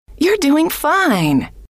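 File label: you're doing fine, female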